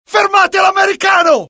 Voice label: alert, dialogue IT; mohaa; attack